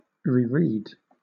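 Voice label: "reread", British English; pronunciations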